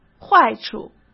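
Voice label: "坏处", Mandarin Chinese; chinese flashcards; hsk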